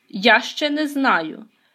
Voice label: dialogue, Ukrainian